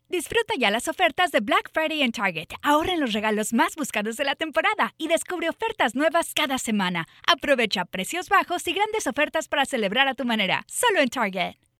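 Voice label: mid-age voice spanish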